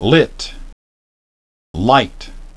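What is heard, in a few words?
lit lite, English pronunciation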